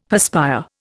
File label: "perspire", Indian; Dictionary